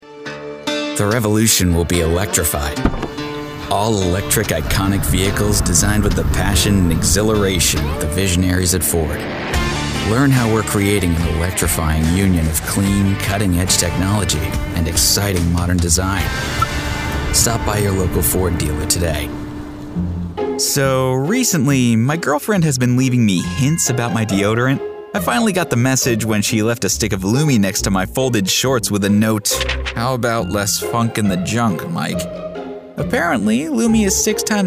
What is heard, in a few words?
Commercial